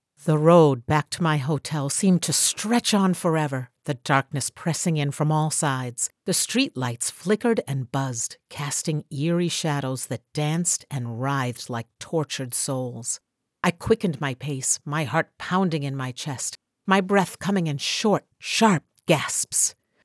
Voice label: Historical Fiction First Person